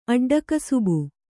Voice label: "ಅಡ್ಡಕಸಬು", Kannada

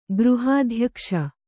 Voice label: "ಗೃಹಾಧ್ಯಕ್ಷ", Kannada

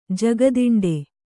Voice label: "ಜಗದಿಂಡೆ", kannada

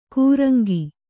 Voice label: "ಕೂರಂಗಿ", Kannada

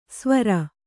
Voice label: "ಸ್ವರ", Kannada

